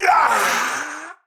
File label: Pain; Warframe; Tau; Dax; Knight